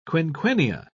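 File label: "quinquennia", English, uk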